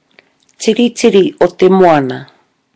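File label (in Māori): "tiritiri o te moana", Māori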